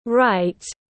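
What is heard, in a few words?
"WRITE", English; school instructions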